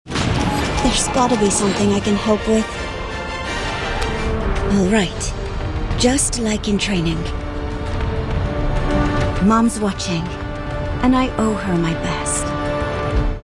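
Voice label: European Female Young Adult Timid High; australian voice over; video game voice actor; WarpathAS; DawnStriker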